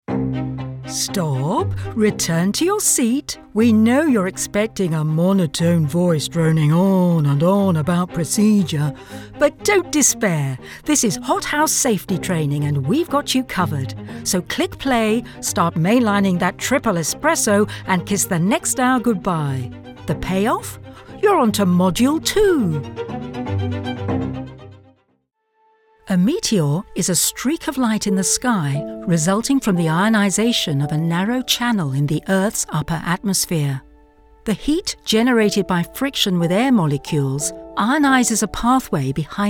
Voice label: eLearning